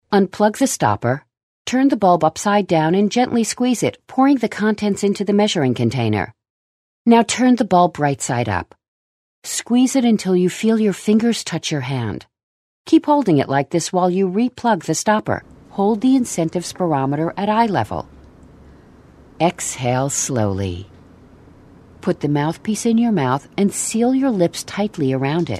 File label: Instructional Medical